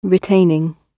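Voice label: "retaining", British English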